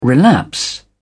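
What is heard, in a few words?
"relapse", British English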